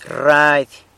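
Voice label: "rrady", San Lucas Quiavini Zapotec; radio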